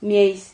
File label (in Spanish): "mieis", Silabas